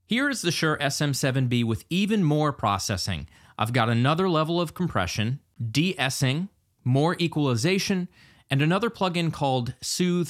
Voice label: Shure SM7B